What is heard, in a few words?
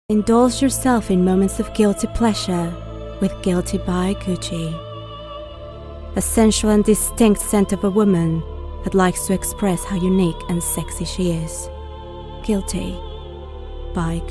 voice reel, Spanish-Latin (Mexico), Female; 30s-40s